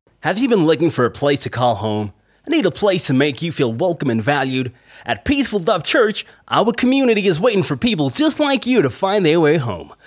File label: American